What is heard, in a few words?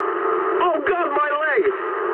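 damaged pilot mood medium, speech English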